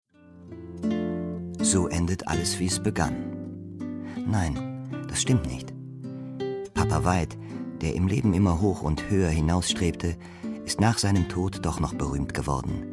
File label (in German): Erzähler